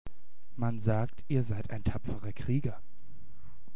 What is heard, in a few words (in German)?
Normal - Krieger